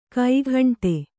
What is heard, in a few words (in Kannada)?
"ಕೈಗುಂಟೆ", kannada